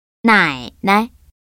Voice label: "nainai", Chinese